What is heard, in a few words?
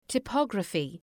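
"typography", English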